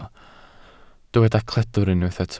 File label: "cledr", Welsh